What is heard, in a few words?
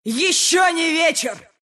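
level up, Russian; Dota 2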